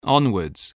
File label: "onwards", voice, spelling